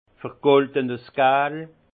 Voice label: "vergoldes gal", alsace; jaune doré; vin

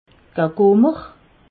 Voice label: "gagummer", alsace

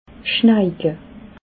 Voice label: "schneike", alsace

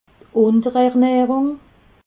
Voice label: "unterernahrung", alsace

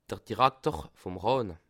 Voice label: "dirakter", alsace

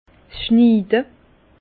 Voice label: "schniide", Bas Rhin; vin; taille

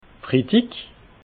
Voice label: "fridig", alsace

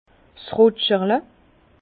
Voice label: "rutscherle", Bas Rhin; vin; gobelet de dégustation

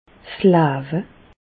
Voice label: "lawe", Alsace, Bas Rhin; medecine; vie

